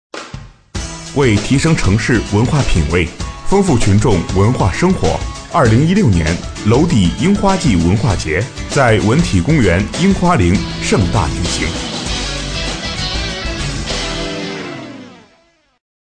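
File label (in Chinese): 男新闻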